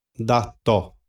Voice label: "dato", xavante; festa/olho